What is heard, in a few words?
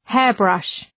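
"hairbrush", English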